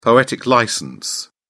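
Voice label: "poetic licence", British English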